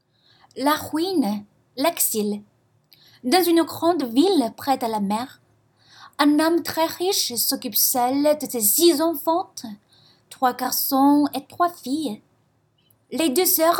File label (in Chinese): 法语－有声读物／绘本; 美女与野兽